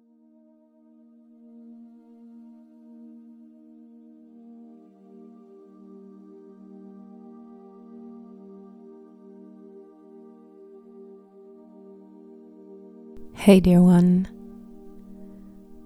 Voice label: Somatic meditative exercise; Ode to the body